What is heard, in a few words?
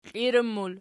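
"kliran" plural, Archi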